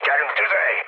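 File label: attack position, mood med, Hungarian; speech; War Thunder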